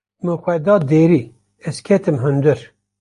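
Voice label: kurdish; ez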